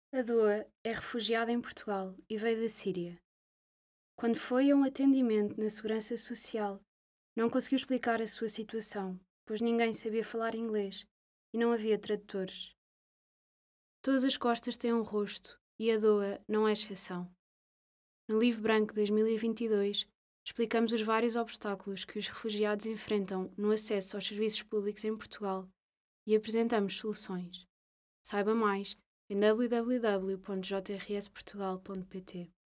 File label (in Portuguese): LOCUCAO